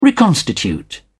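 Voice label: "reconstitute", British English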